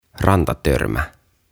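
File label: "Rantatörmä", "Cliff", Finnish, English; OTAVA KONSERNI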